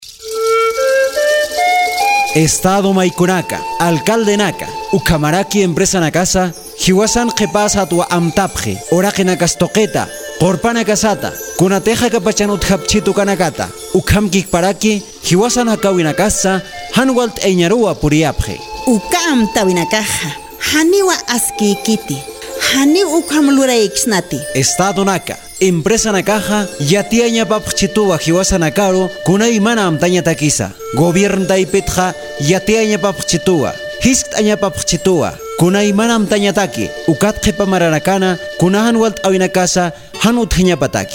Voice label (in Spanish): Consulta Spot; AYMA